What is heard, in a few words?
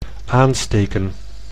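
"aansteken", Dutch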